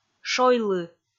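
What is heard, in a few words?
"шойлы", Komi, female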